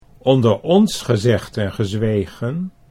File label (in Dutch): "onder ons gezegd en", Dutch